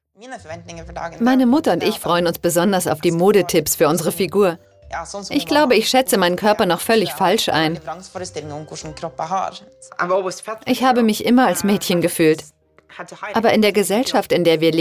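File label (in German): Kommentar, Freundlich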